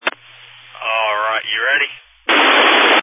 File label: Family Radio Service (FRS)